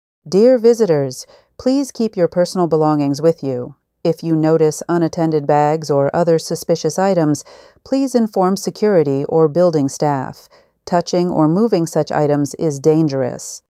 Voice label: emergency announcement security vigilance; emergency voice announcements